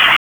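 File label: Raleigh Police North District Tactical, p25; wake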